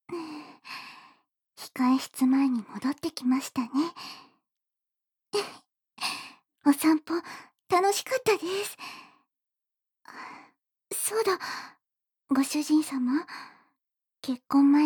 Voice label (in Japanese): ディープキス＆囁き; 初めてキスをおねだり、そのまま控室で